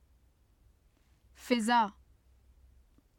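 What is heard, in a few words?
"fiza", Urdu; فضا; [fizɑː] (fizā); 雰囲気（女）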